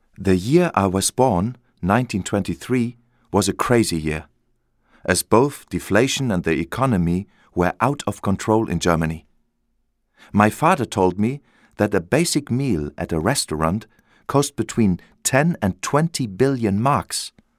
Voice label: voices audioguide us